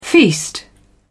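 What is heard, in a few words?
"feast", British English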